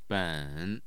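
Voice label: "ben", Mandarin Chinese, tone 3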